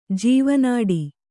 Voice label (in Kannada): "ಜೀವನಾಡಿ", Kannada